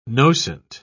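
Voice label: "nocent", British English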